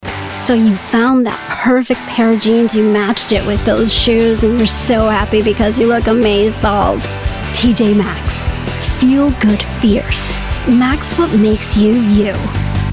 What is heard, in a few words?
Commercial Voiceover Fun Millennial Approachable; TJ Maxx